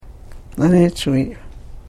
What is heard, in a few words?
"naniachviiv", karuk